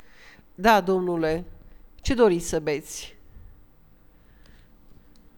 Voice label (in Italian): bar, rumeno; frasi utili